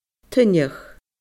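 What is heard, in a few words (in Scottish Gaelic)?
"tuineach", Scottish Gaelic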